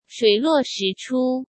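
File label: "水落石出", Mandarin Chinese; chinese flashcards; hsk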